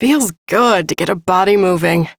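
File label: Ambient Female Brisk, Dialog; Duviri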